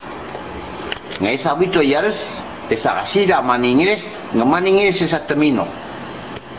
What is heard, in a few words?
Tobian; Ngaesa bito yarus, esa hasira maningis, maningis sa teminoh; The earliest-known examples of Tobian speech: Dialogues in the Language of Lord North's Is